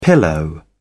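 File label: "pillow", British English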